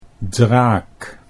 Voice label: "draak", Dutch